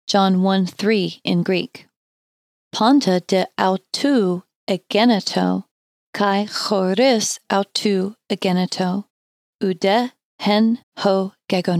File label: John 1:3 In Greek Slowly